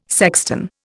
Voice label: "sexton", Indian

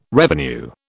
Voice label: "revenue", British

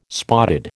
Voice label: "spotted", American

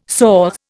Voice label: "soh", Indian

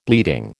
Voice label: "bleeding", American

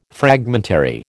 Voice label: "fragmentary", American

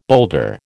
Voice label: "boulder", American

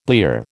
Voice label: "blear", American

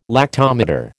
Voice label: "lactometer", American

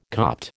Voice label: "Copt", American

